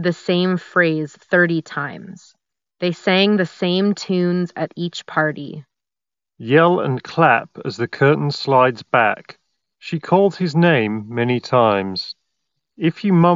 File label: Ideal microphone sample; OnePlus buds Z2